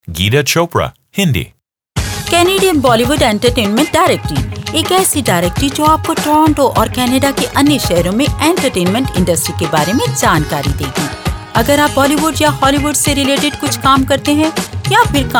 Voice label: Hindi